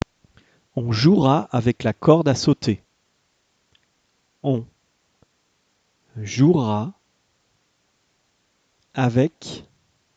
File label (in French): dictee bilingue homophones grammaticaux; orthographe